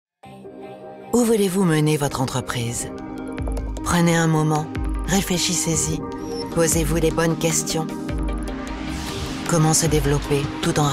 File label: FR, Corporate; GoLocalise